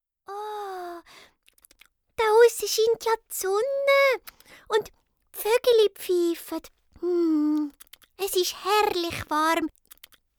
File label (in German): Ostergschicht Hoerprobe, Sprecherin